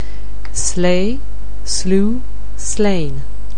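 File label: "slay", English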